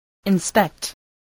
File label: "inspect", voice spelling